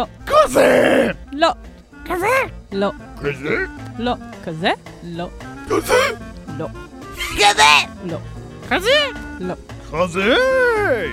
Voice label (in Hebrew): קול מצחיק; היפופוטם; hipo